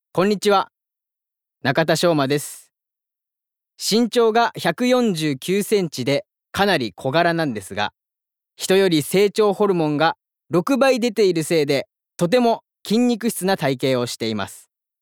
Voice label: Freetalk, male voice

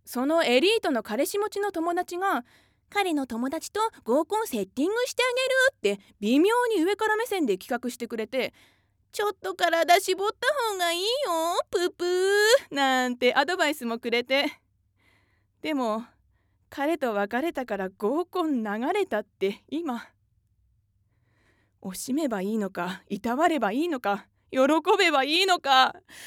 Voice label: female voice

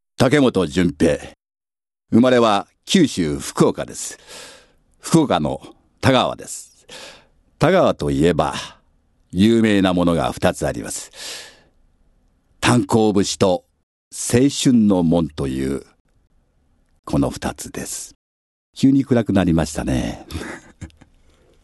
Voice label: freetalk, male voice

